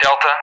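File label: "Delta", English; Alphabet; DCS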